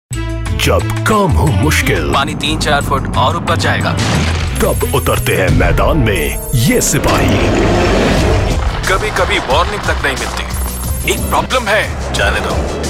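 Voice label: Product male voice over hindi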